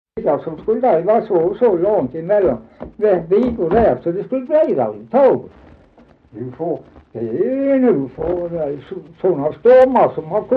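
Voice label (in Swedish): Dalby Skåne, Svenska sagor dialekter; Folkminnen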